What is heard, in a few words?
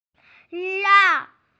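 "la4", Mandarin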